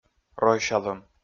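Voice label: "ройсялӧм", Komi, male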